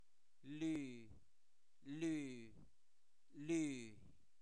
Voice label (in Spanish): Zapoteco, santo domingo albarradas; INALI; pronombre libres; tú